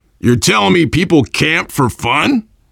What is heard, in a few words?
world farmhouse, voice, biker; Left 4 Dead 2; left4dead2 dlc3; survivor